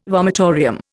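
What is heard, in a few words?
"vomitorium", Indian English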